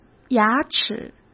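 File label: "牙齿", Mandarin Chinese; chinese flashcards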